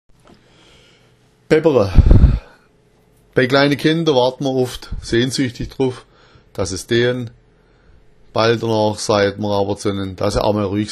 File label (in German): "baebbera", schwaebisch